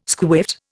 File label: "squiffed", Indian